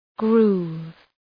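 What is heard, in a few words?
"groove", English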